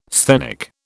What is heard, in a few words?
"sthenic", British